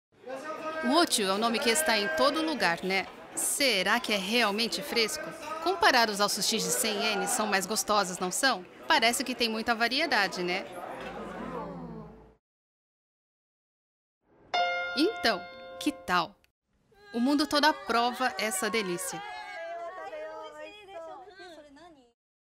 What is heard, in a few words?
foreigner